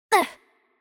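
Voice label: Hurt Light, Chinese; bloom minigame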